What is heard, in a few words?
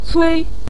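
"cui" Mandarin Chinese, neutral tone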